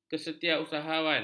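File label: "kesetiausahaan", Malay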